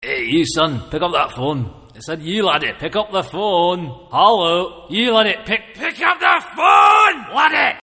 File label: scottish man